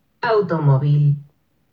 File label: automobile sp fast